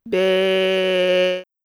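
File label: "べ", Japanese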